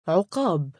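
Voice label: "3uqaab" male, Arabic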